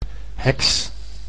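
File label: "heks", Dutch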